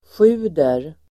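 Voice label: "sjuder", Swedish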